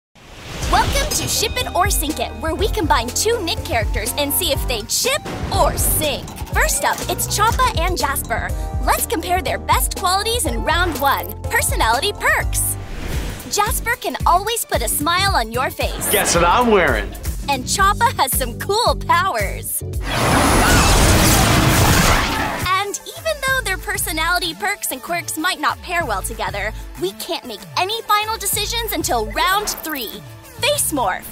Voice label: promo

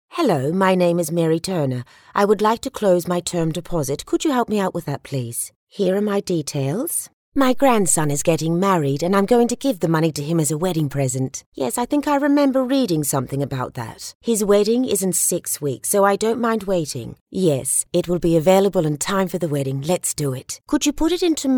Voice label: Older Character

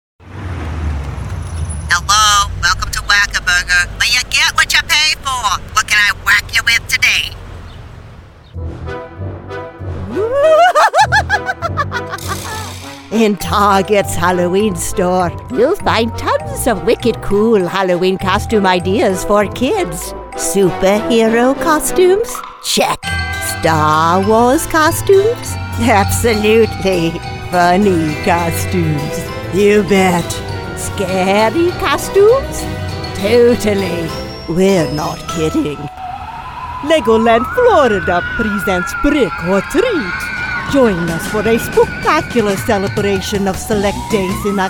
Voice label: Character

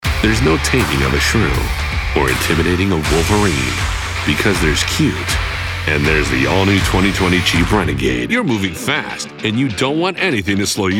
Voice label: COMMERCIAL

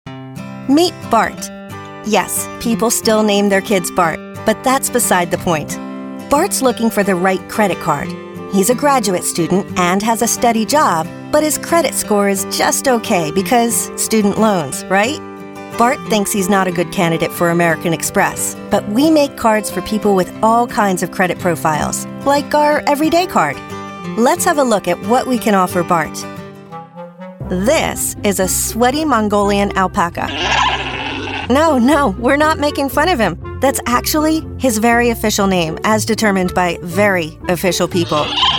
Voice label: Explainer

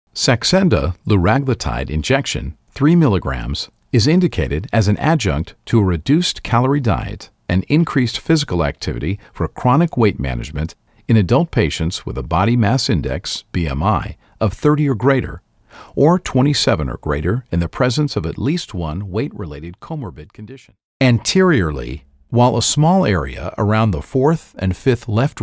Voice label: Medical Narration

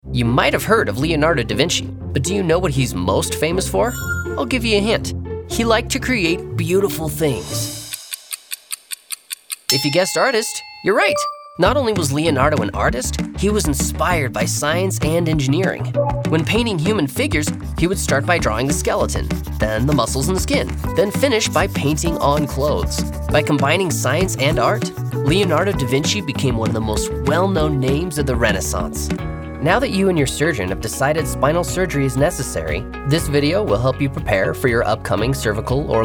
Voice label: Narration

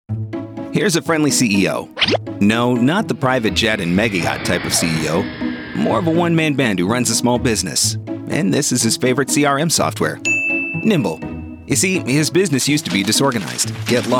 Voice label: Explainer English

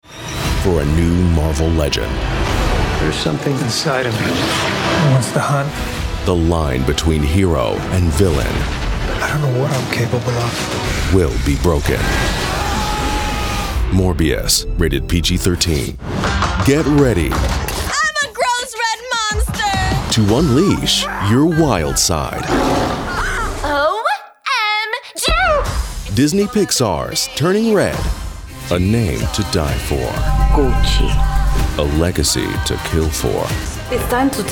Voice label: Movie Trailer

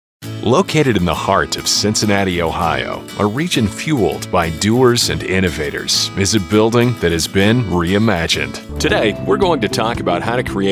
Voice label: Elearning

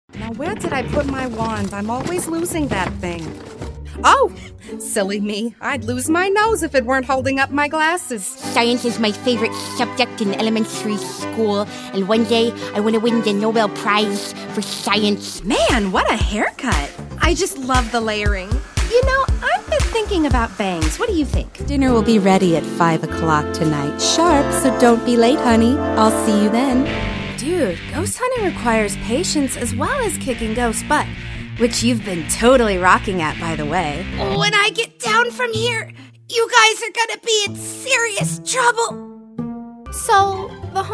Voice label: Animation

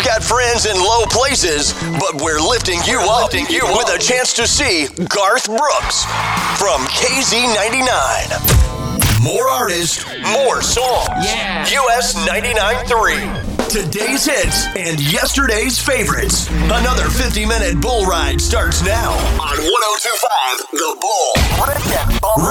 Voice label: Country Imaging

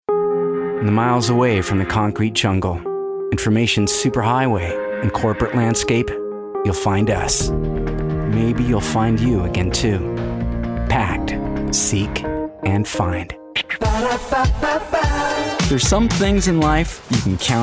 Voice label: Commercial English

